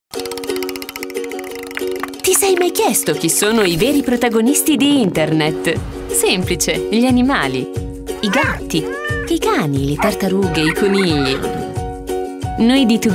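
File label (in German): Italian, Fremdtake Freundlich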